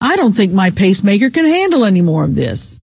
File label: pacemaker, scientist female